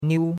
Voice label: "niu1", chinois; Cours de Chinois